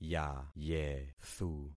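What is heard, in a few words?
"yayethu", concatenated syllables; Affixing experiment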